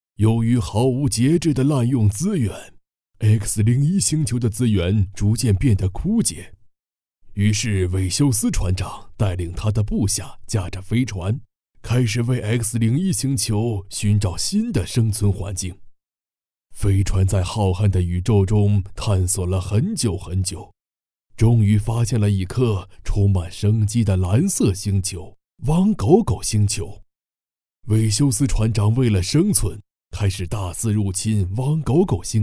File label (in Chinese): 男 模仿老人 有声读物; 老人案例